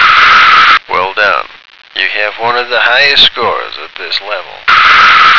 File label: "Well Done", American